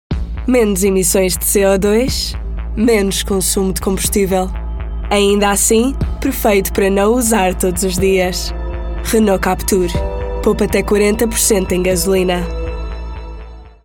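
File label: Portuguese Europe, commercial; GoLocalise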